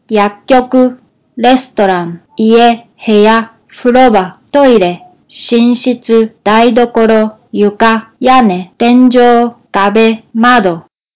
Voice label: japanese places

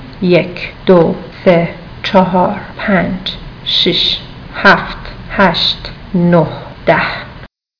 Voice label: farsi numbers

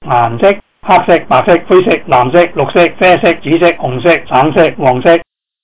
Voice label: cantonese colors

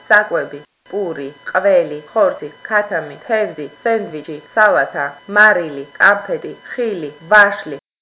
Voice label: georgian; food

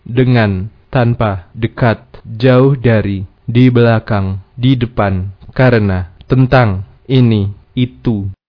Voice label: indonesian words

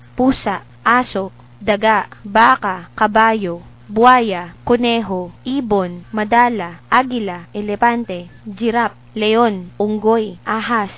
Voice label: filipino; animals

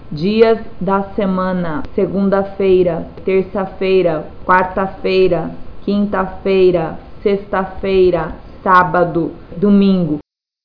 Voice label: brazilian

